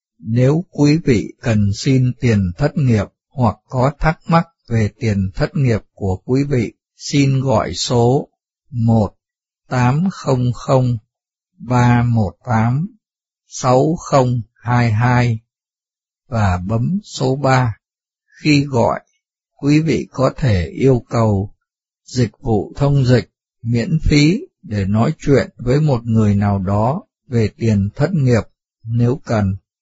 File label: vietnamese; ESDWA; Language Resources